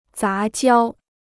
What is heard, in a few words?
"杂交", Chinese